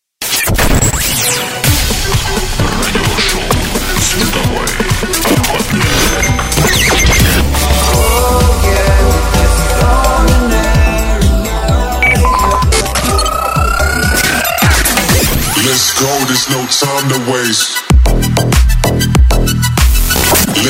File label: Radio Show; SoundHunter